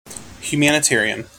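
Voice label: "humanitarian", US English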